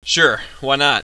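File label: "yes", English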